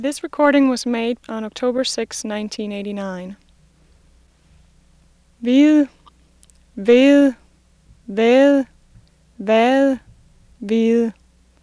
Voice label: vowels, Dan